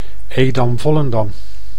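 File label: "Edam-Volendam", Dutch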